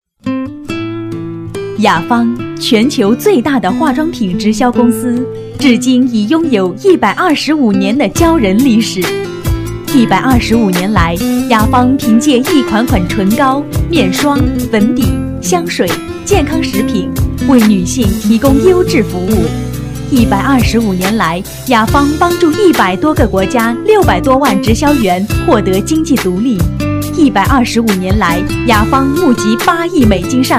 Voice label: girl, Chinese, zhuanti